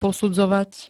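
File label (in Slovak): "posudzovať", Slovak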